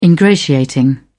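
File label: "ingratiating", British English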